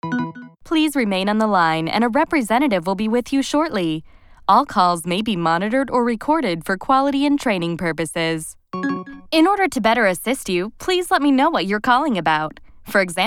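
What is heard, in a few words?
US English, IVR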